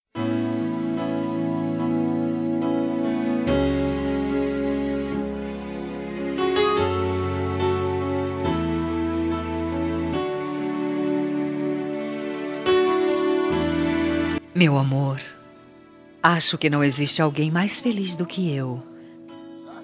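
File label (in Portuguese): ROMANTICA EVANGELICA female, telemensagem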